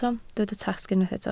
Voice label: "tasg", Welsh

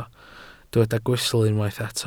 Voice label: "gwystl", Welsh

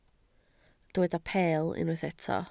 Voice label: "peel", Welsh

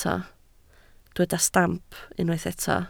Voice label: "stamp", Welsh

